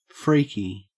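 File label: "freaky", Australian English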